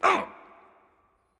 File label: victory, vox; smite; voicepack; hercules